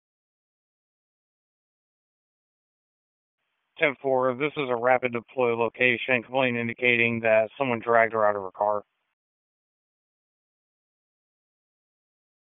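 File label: Raleigh Police Northeast District Dispatch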